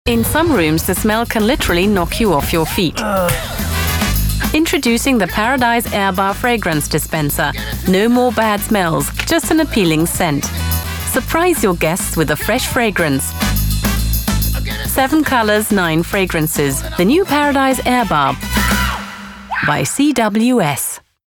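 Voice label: English, advert; CWS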